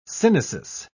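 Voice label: "synesis", British English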